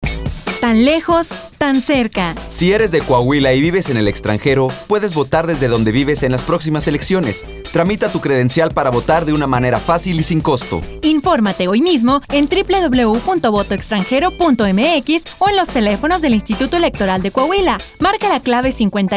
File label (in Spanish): spot de radio; Voto extranjero